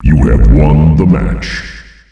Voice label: You Have Won the Match, announcer male; Unreal Tournament; ut2004

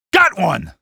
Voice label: got one, male; Half-Life 2

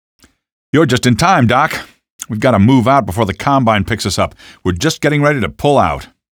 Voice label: gunboat pullout, male; Half-Life 2; canals

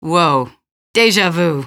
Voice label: question, female; Half-Life 2

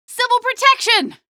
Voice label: civil protection, female; Half-Life 2

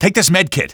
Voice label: health, male; Half-Life 2

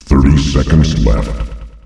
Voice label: "30 seconds remain", announcer male; Unreal Tournament; ut2004

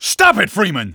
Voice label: stop it, male

